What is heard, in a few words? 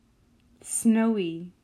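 "Snowy", English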